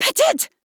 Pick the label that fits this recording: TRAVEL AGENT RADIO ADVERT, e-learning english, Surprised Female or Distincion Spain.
Surprised Female